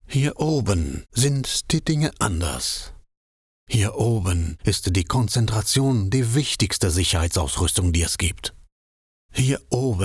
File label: German